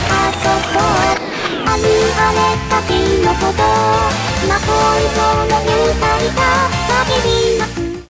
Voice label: chitchat, voice commands; svencoop; Miku